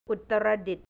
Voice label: "utaradit", thai; อุตรดิตถ์; north; แผนที่ประเทศไทย

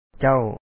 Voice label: "ເຈົ້າ", Lao; ca1w you

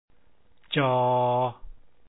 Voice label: j sound, lao

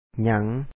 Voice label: "ຫຍັງ", Lao; n)a4N

